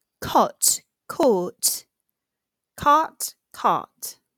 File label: cot caught, British accent